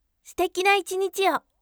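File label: "sutekina ichiniti wo", bright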